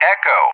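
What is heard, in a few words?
"Echo", English; JTAC; Alphabet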